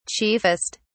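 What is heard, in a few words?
"chiefest", British English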